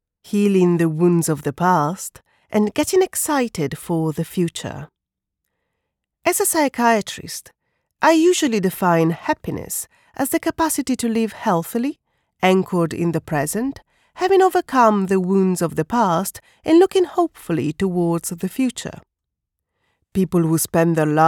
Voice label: Non Fiction English Psychology Warm Conversational